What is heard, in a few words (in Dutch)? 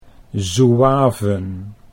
"zouaven", Dutch